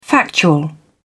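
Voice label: "factual", British English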